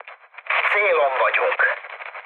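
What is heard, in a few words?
Hungarian near waypoint mood med, speech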